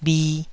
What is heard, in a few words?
"bhi2", taiwanese